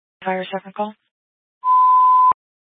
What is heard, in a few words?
Grand Junction Fire Department Dispatch